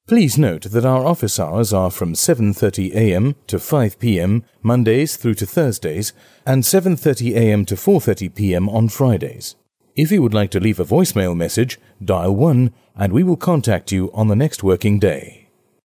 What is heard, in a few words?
After Hours IVR; IVR (Interactive Voice Response) or Auto-Attendant message; voice recording